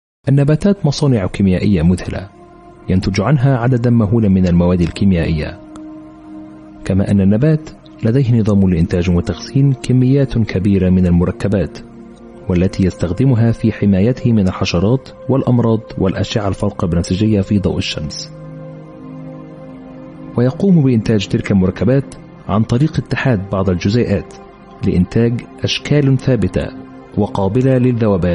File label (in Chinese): 阿拉伯语男声